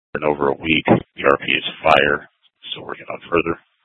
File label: PD Dispatch; Scottsdale; Sctdal PD Simulcast H; A01 - Sth Dispatch